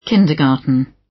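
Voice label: "kindergarten", British; Study, education, research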